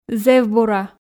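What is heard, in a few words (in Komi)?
зэв бура, Komi, female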